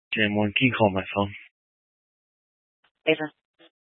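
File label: Fire Dispatch, Scanner audio recording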